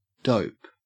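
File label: "dope", Australian English; pronunciations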